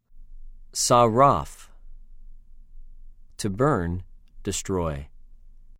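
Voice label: "שָׂרַף", Hebrew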